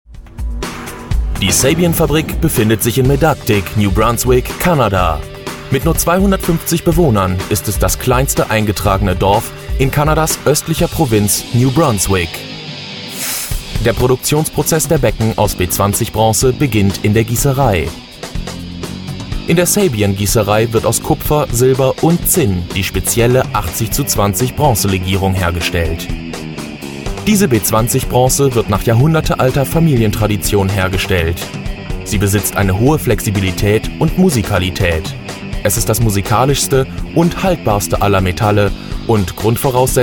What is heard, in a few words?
german